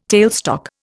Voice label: "tailstock", Indian